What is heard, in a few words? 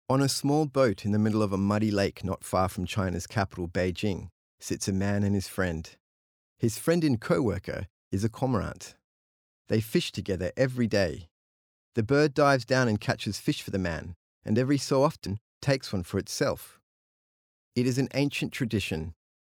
Corporate